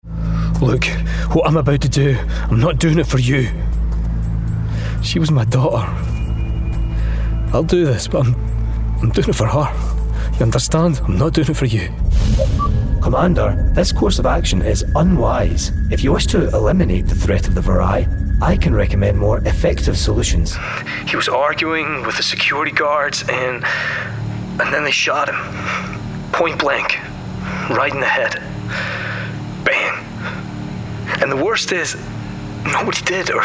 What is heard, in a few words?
Gaming Character Reel